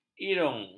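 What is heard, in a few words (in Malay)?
"irung", Malay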